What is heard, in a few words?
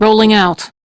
Crew Follow, Steel Meridian Female; Dialog; en; Warframe; RailjackCrew